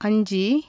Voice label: "five", Tamil consonants; JIPA